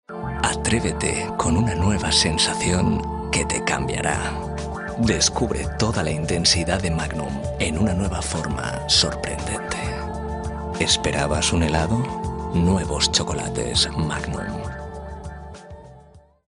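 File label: Spanish commercial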